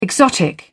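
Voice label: "exotic", British English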